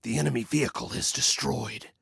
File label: Enemy Vehicle, Male